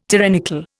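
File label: "tyrannical", Indian English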